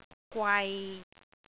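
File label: "gwai6", Cantonese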